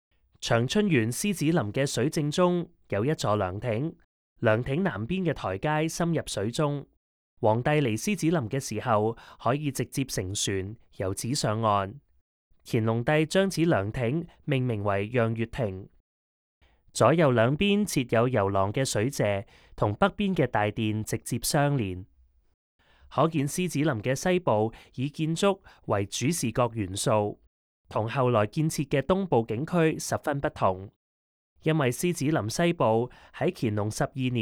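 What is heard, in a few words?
Cantonese, audio guide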